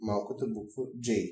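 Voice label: j filled desc, Bulgarian; alphabets; lowercase; tuxpaint-stamps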